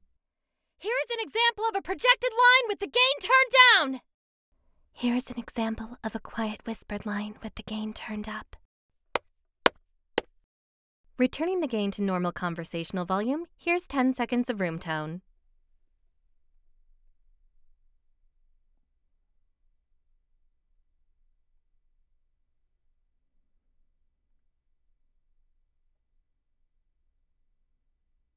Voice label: booth sample